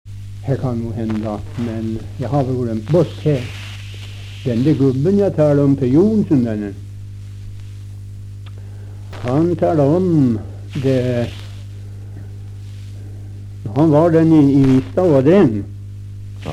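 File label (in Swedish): Österfärnebo Gästrikland, dialekter; Folkminnen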